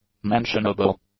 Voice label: "mentionable", english; adjectives